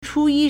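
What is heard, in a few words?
"chūyī", Chinese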